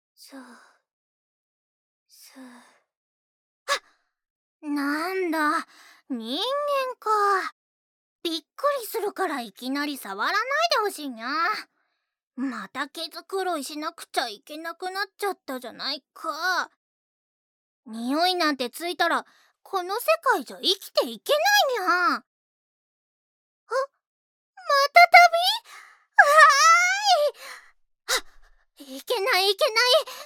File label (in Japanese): 人外 ネコ